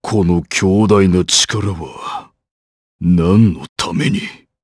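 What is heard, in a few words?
voice, Japanese; Bernheim; kingsraid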